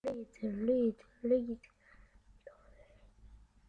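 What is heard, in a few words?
"lead", spoken word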